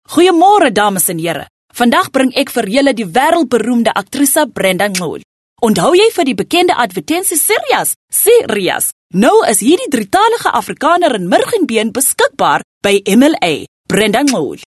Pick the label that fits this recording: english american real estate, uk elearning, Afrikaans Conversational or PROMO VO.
Afrikaans Conversational